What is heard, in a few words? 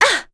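Vox Landing, voices heroes English; Juno; kingsraid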